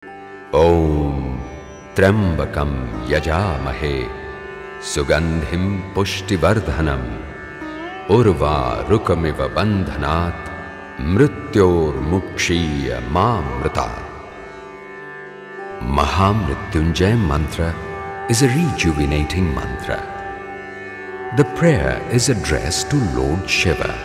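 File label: Sanskrit; foreign languages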